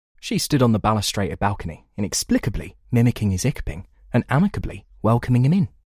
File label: UK London Younger